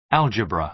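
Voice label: "algebra", American English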